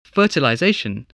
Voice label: "fertilization", spelling

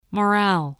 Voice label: "morale", voice, spelling

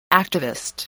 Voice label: "activist", English voice

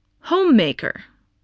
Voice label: "homemaker", voice spelling

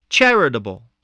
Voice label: "charitable", English, voice

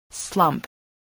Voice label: "slump", voice, spelling

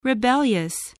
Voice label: "rebellious", english

